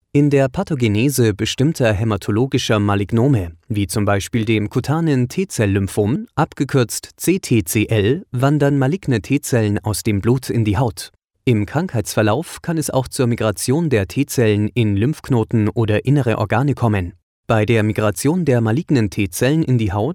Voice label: german male medical

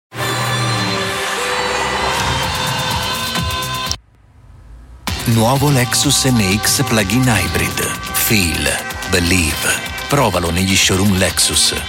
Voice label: italian male voice montage

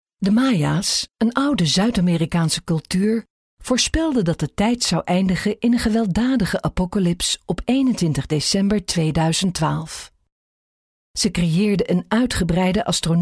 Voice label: dutch female documentary

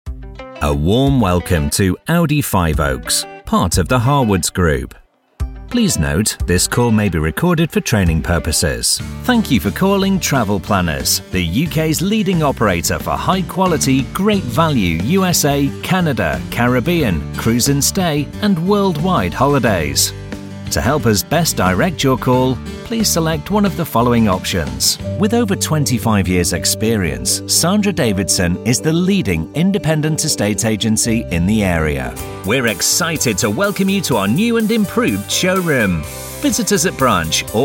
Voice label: ivr telephone messages

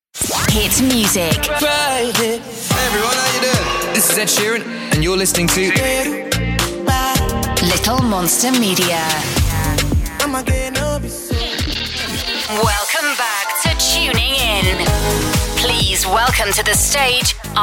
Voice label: imaging

